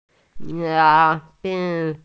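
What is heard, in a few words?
"qian1bi3", Mandarin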